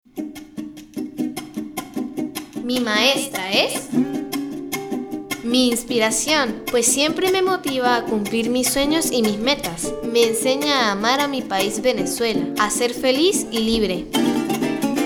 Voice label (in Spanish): Spot Radio; Talento; UETD Táchira